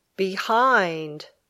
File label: "behind", English